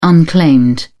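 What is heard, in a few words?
"unclaimed", British English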